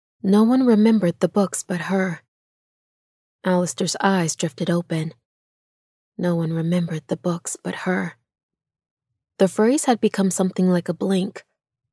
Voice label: Audiobook